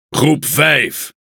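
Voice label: NL Speech Pilots Torpedo ID, Dutch; World Of Warships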